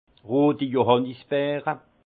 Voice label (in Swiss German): "roti johannisbeere", alsace; vin; groseilles rouges